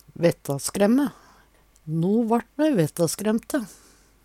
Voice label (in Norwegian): vettaskremme, numedalsmal; Me vart vettaskremte.